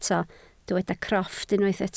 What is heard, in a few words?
"crofft", Welsh